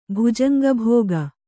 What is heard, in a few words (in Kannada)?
"ಭುಜಂಗಭೋಗ", Kannada